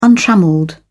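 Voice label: "untrammelled", British English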